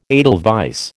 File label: "edelweiss", American